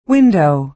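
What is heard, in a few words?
"window", American English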